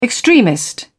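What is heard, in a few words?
"extremist", British English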